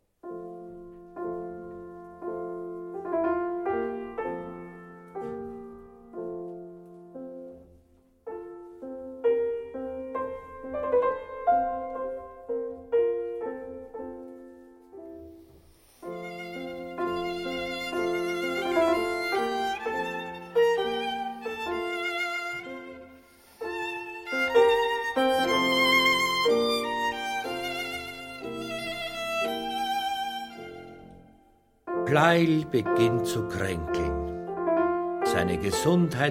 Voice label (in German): Hörbuch; pleyel